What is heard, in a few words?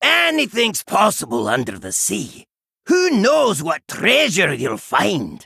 Innkeeper Male Dwarf Greeting